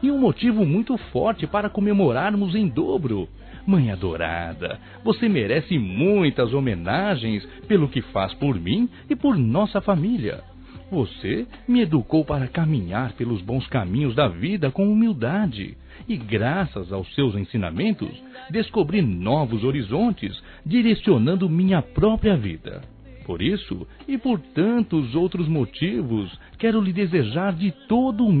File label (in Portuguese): DIA DAS MÃES ANIVERSARIANTE M, Telemensagens; Masc; Telemensagens 2017